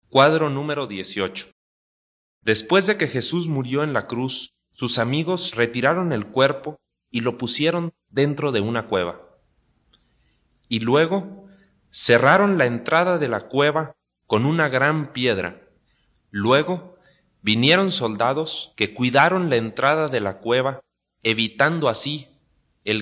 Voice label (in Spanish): good news spanish mexico